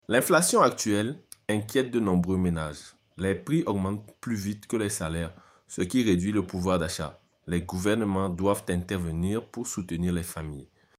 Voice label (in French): Accent africain francophone Inflation